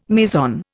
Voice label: "meson", Indian